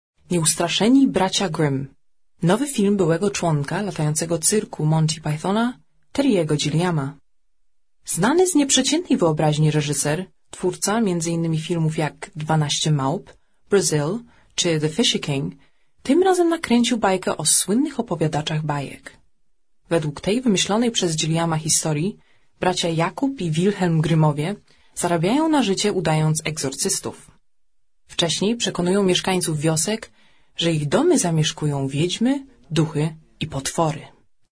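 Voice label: polish female voice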